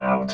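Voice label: "out", robot voice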